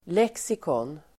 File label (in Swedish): "lexikon", Swedish